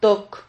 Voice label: "toc", Silabas

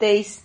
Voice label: "teis", Silabas

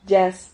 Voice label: "llas", Silabas

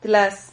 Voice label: "tlas", Silabas

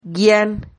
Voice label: "guian", Silabas

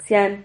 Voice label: "xian", Silabas

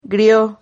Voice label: "grio", Silabas

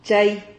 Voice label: "chay", Silabas

